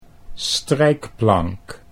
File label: "strijkplank", Dutch